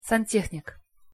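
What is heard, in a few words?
"santehnik", Russian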